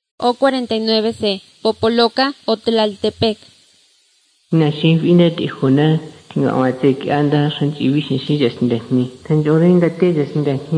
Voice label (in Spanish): spanish mexico